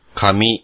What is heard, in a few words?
"paper", classroom objects